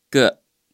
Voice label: "個", Chinese